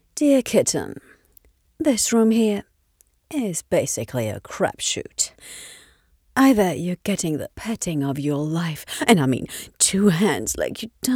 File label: narration Cat en